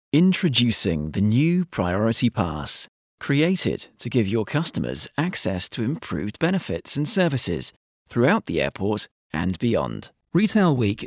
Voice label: CORPORATE